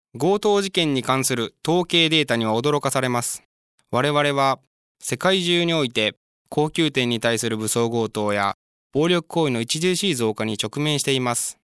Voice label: japanese m narration; voice demos

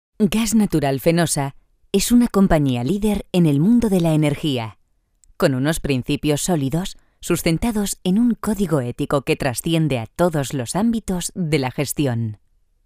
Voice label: spanish f narration, spanish voice demos

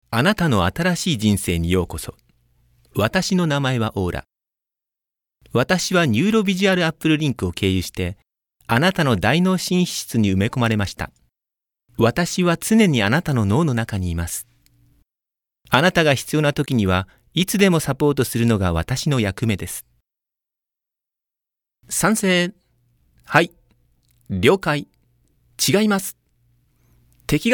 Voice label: japanese m narration; voice demos

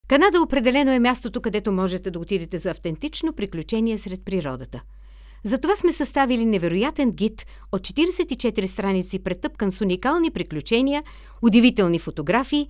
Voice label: bulgarian f character; voice demos

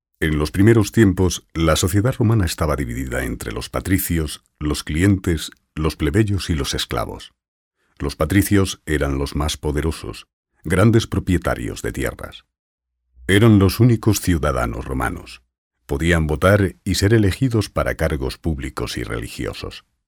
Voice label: spanish m narration; voice demos

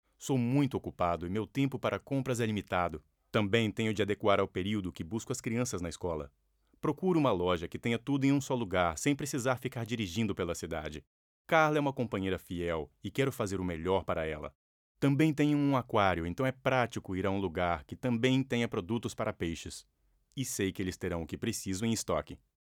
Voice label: brazilian m narration, portuguese; voice demos

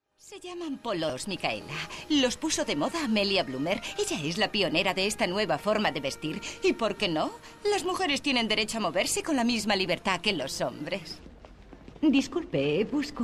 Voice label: spanish f commercial; voice demos

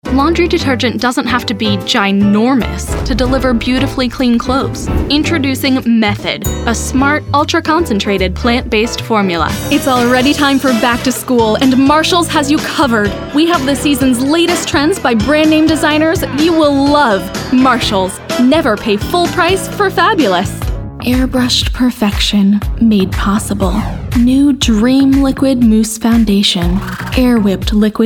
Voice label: english us f commercial, voice demos

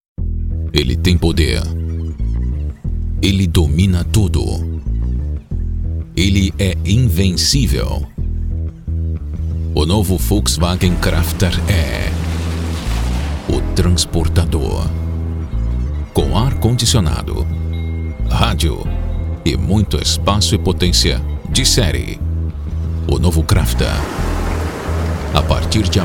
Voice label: brazilian m commercial, portuguese; voice demos

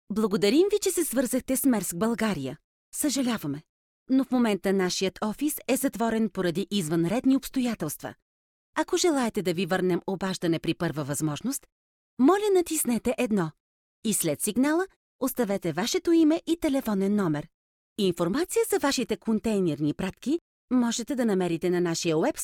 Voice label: bulgarian f ivr, voice; voice demos

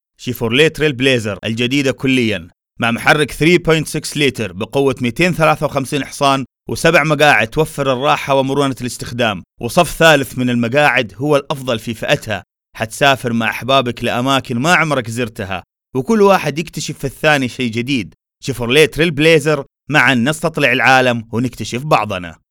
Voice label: arabic saudi m commercial; voice demos

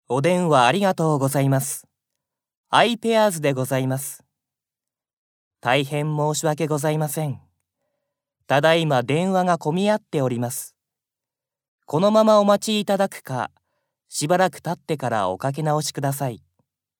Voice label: japanese m character; voice demos

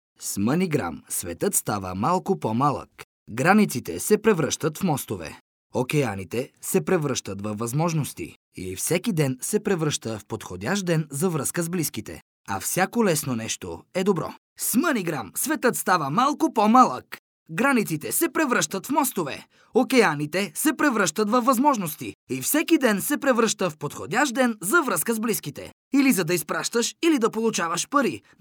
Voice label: bulgarian m commercial, voice; voice demos

